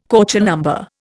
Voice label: "Köchel number", Indian

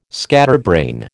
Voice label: "scatterbrain", American

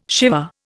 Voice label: "Siva", Indian

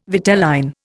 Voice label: "vitelline", Indian

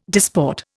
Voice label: "disport", Indian

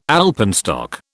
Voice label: "alpenstock", British

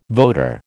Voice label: "voter", American

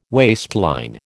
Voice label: "waistline", American

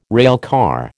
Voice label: "railcar", American

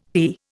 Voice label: "fee", Indian

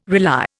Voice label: "rely", Indian

